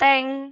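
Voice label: "bing3", Cantonese; microsoftHiuMaan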